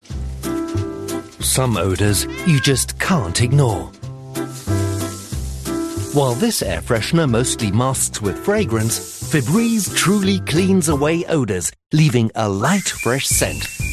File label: voiceover native speaker uk british commercial; englischer sprecher; Febreze